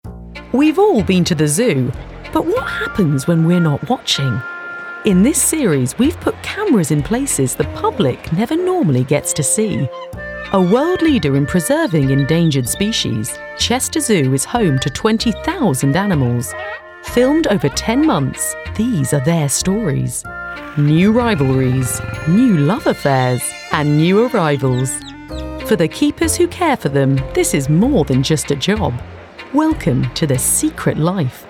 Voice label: Documentary Reel

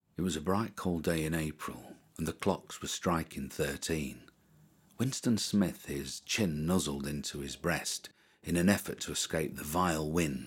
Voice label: Northern Accent

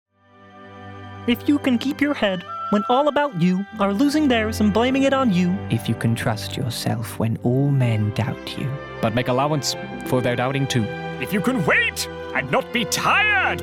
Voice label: animation

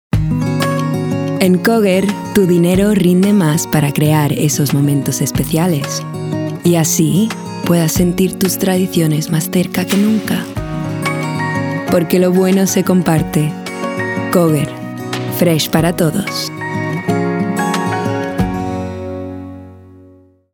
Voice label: Spanish Ad; Koger

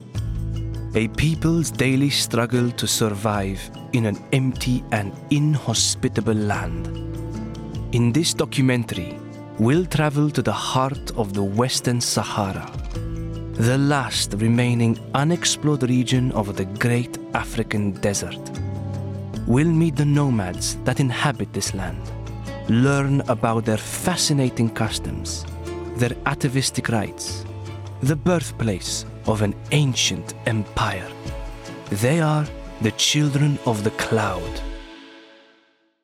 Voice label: Middle Eastern Accent

